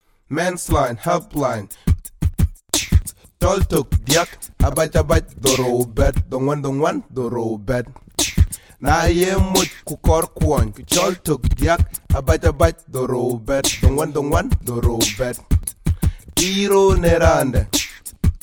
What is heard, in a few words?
Dinka English; MensLine; Mens Help Line - Dinka